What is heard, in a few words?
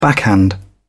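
"backhand", British English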